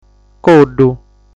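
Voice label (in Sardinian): "Coddu", Sardinian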